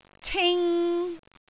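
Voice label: "cing3", Cantonese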